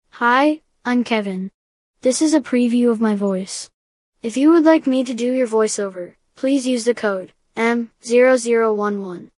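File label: Youth, male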